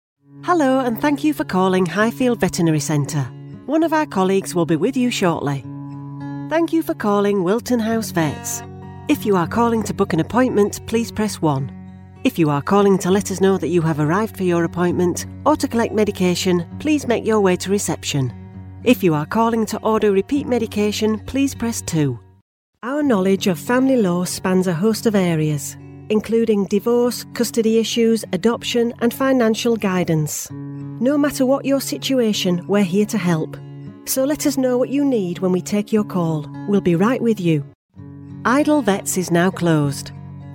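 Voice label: on hold IVR, female voiceover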